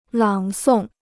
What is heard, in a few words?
"朗诵", Chinese